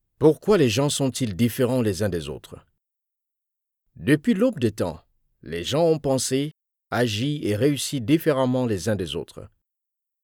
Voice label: french audiobooks